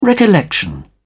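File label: "recollection", British English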